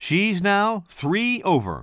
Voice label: commentator